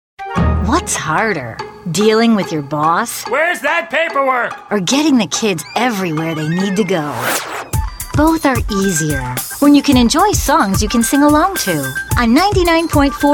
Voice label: Imaging Female; commercial voiceover

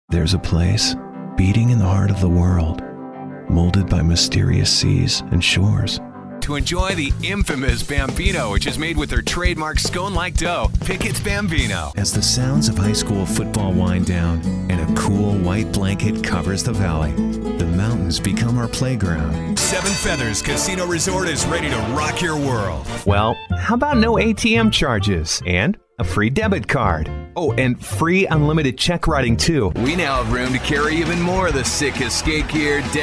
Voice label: Commercial Male; commercial voiceover

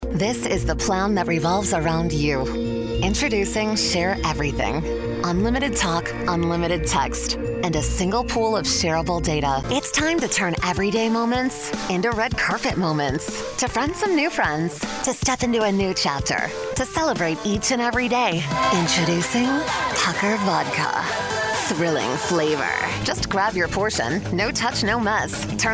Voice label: Commercial Female; commercial voiceover

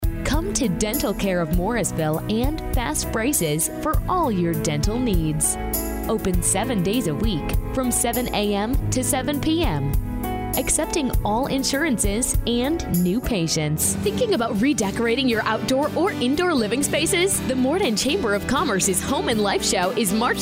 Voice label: Commercial Female; commercial voiceover

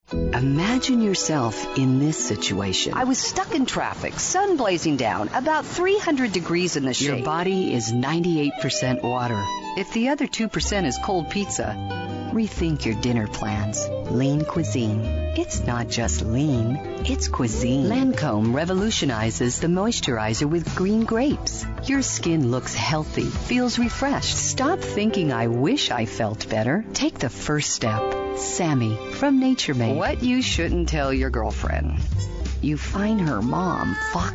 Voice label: Commercial Female; commercial voiceover

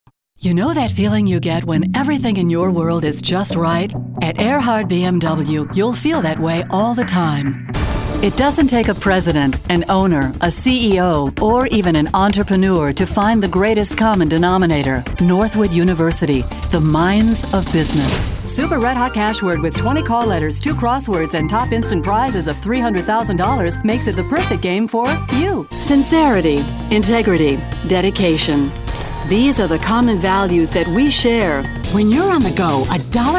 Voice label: Commercial Female; commercial voiceover

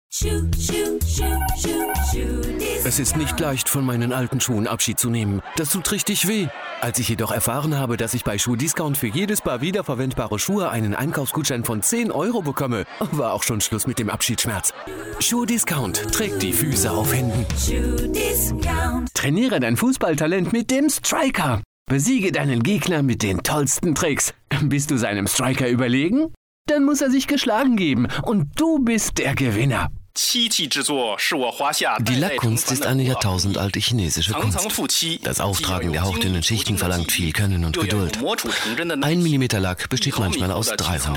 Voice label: German Male Commercial

